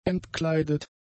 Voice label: "entkleidet", German; adjectives

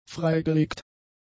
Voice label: "freigelegt", German; adjectives

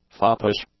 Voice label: "foppish", english; adjectives

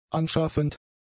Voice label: "anschaffend", German; adjectives

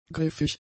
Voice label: "griffig", German; adjectives

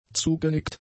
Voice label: "zugenickt", German; adjectives

